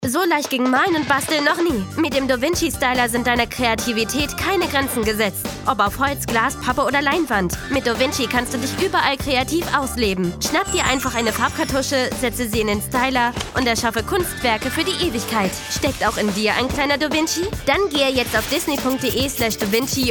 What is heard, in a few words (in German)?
tv spot; dohvinci